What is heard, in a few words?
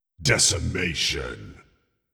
decimation